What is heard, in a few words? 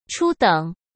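"初等", Mandarin Chinese; hsk; chinese flashcards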